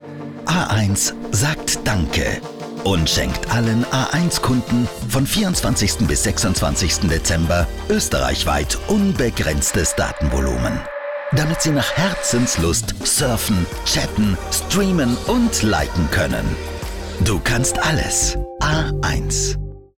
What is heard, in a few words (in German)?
Sprach Casting Werbung